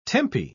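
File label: "tempi", British English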